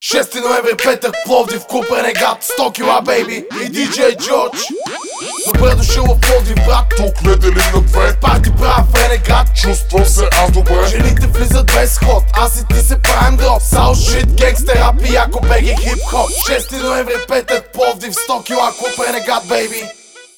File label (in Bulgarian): Reklama